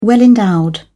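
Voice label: "well-endowed", British English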